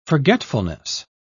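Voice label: "forgetfulness", British English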